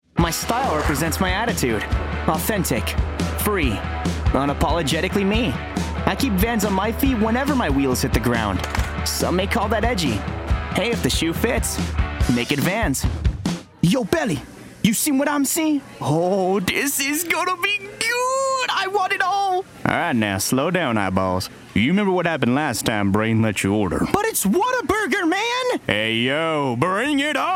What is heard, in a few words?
commercial